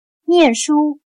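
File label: "念书", Chinese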